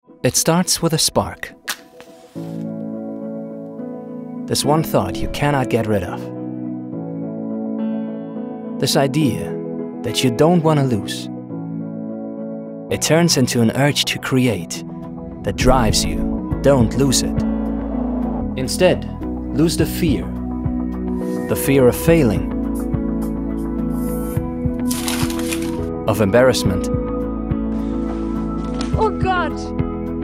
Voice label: english